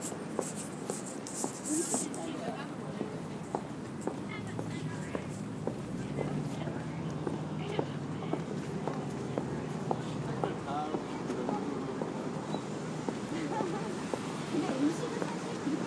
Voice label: korean speaker stalking grounds

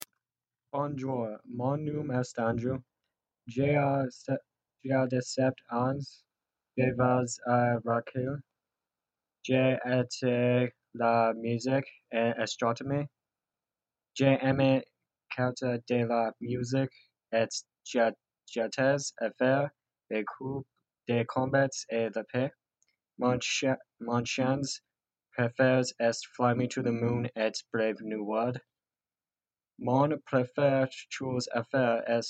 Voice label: french

